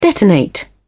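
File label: "detonate", British English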